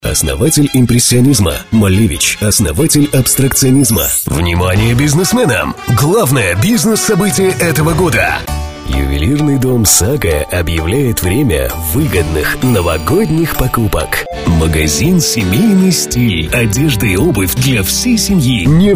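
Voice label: Russian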